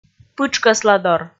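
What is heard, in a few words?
"пытшкӧсладор", Komi, female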